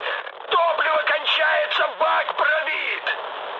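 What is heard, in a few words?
tank damaged, mood high, Russian; War Thunder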